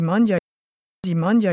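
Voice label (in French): manghja si manghja, Corsican, Moita Verde, Rocca; Corse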